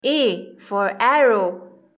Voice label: "a arrow", english; grade1; identifying letters i

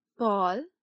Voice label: "ball", English

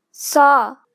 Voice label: "saw", simple machine

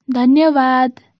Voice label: thank you girl; our environment

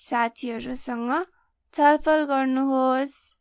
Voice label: girl

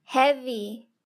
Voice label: "heavy", english; grade3; fun with sentence

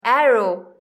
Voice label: "arrow", english; grade1; identifying letters i

